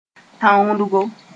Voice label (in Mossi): "tãonglgo", moore; hard